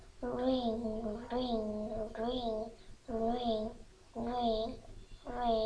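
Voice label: "ring", spoken word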